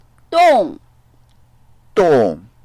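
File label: "dong4", Mandarin Chinese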